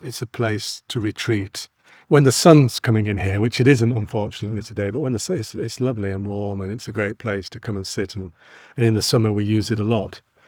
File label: Interview